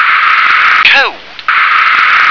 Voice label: "cold", English

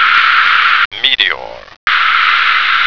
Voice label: "meteor", American

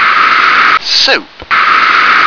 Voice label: "soap", English

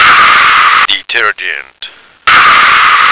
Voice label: "detergent", American

